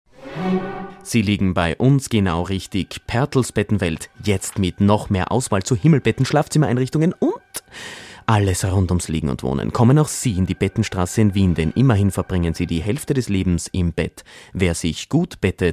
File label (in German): werbespot; bettenwelt